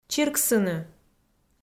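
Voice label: "чирксыны", Komi-Zyrian, female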